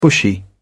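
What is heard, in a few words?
"bushy", British English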